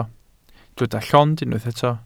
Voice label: "llond", Welsh

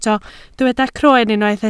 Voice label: "croen", Welsh

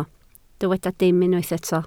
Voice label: "dim", Welsh

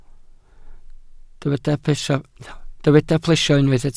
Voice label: "plesio", welsh

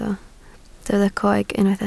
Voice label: "coeg", Welsh

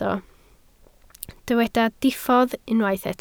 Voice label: "diffodd", Welsh

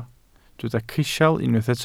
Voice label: "crisial", welsh

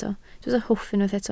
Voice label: "hwff", Welsh

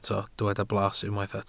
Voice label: "blas", welsh

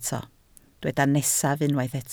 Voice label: "nesaf", Welsh

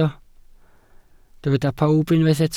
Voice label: "pawb", Welsh

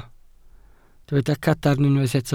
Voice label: "cadarn", Welsh

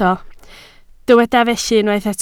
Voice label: "felly", Welsh

